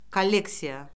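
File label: "kolleksiya", Azerbaijani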